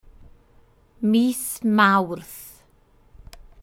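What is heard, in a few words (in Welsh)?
"mis mawrth", Welsh; March; The Months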